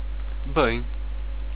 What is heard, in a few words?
"good", Portuguese; Bem